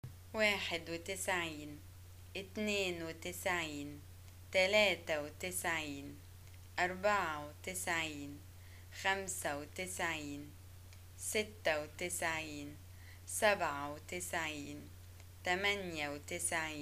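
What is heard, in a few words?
Egyptian numbers 91 100, arabic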